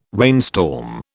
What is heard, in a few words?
"rainstorm", British